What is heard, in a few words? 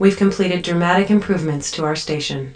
completed dramatic; allegiance